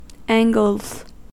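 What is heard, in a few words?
"angles", American English; pronunciations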